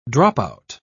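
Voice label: "dropout", English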